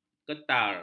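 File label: "ketar", Malay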